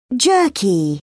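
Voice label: "jerky", British English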